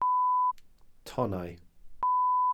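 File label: "tonnau", Welsh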